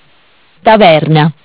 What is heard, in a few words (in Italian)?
"Taverna", MESSAGGI VOCALI; Bentel; BLE320; Audio BGS220